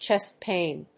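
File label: "Chest pain", English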